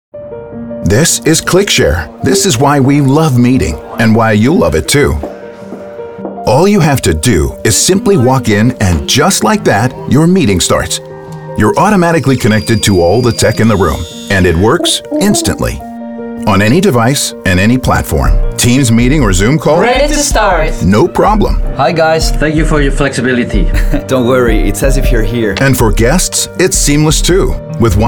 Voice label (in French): Homme 45 55 ans grave; VOIXOFF ETRANGERES